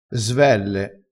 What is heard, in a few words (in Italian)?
"svelle", crespinese